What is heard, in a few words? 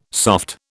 "soft", British